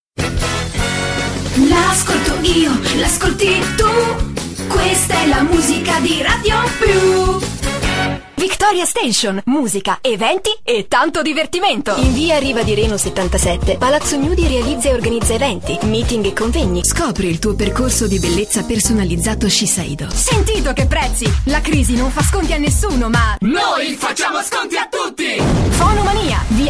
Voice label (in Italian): spot